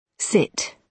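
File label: "sit", British English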